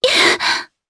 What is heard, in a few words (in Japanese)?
Damage, jp; Yuria; kingsraid